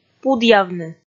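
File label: "пудъявны", Komi, female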